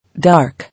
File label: "Dark", English